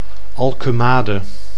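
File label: "Alkemade", Dutch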